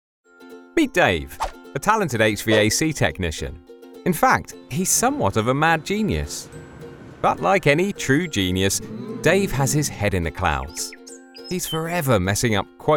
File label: Explainer UK, voice-over; Demo